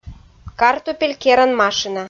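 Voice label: "картупель керан машина", Komi, female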